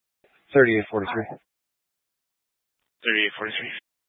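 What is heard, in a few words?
Police Dispatch, scanner audio recording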